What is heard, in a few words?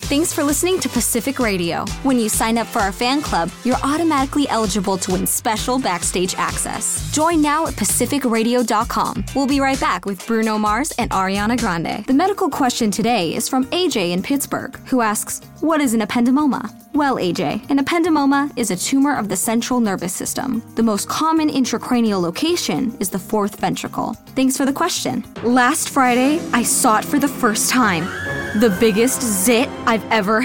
Commerical